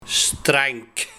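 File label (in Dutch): "Strank", dialect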